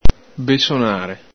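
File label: "besonare", Veneto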